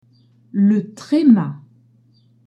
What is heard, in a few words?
trema, French accents